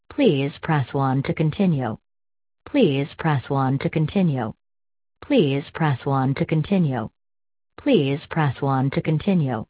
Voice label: ivr